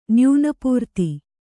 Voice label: "ನ್ಯೂನಪೂರ್ತಿ", Kannada